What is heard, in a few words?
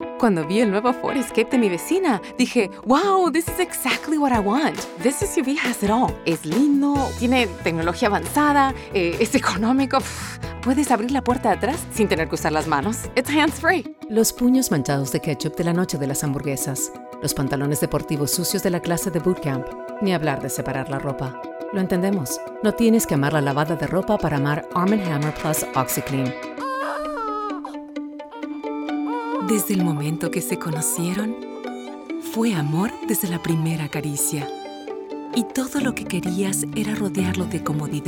Spanish; Demo